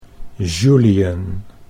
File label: French